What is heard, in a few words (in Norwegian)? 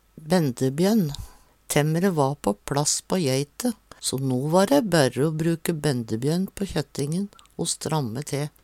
bendebjonn, Numedalsmål; bukk og geit kallast sledane som tømmeret blir frakta på